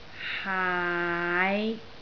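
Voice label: "haai5", Cantonese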